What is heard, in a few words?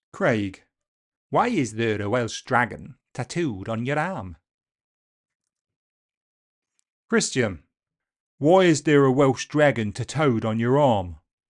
Welsh